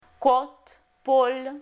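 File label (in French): côte — pôle, French; Phonetique; Voyelles; Lingvo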